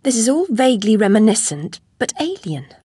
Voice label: chitchat; svencoop; Alice